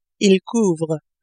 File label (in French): "ils couvrent", French